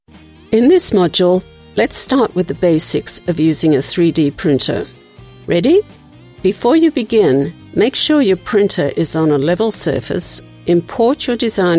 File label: Elearning 3D Printer music 70s